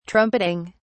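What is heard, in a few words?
"trumpeting", British English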